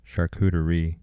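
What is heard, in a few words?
"Charcuterie", US English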